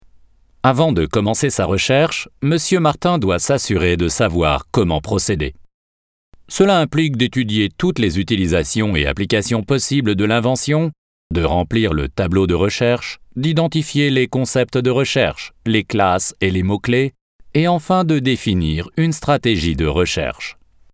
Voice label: french e-learning